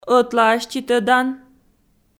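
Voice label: "ӧтлааститӧдан", Komi, female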